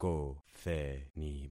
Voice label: "kotheni", concat syllables; Affixing experiment